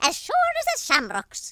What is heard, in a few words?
"fatality", Irish; speech